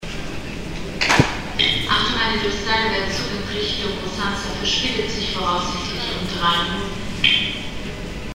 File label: announcement subway delayed